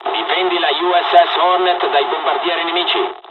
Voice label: attack, dialogs italian; War Thunder

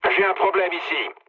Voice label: aircraft damaged mood low, speech fr; War Thunder

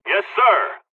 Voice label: dialogs hungarian; War Thunder

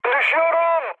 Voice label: aircraft destroyed, mood med, speech tur

